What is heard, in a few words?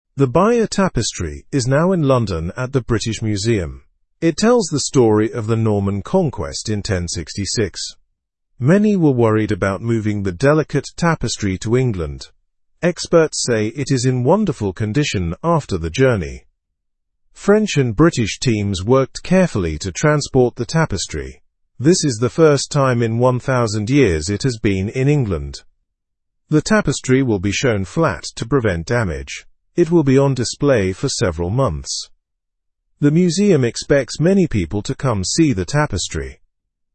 learn english through news lower intermediate normal; bayeux tapestry exhibited in london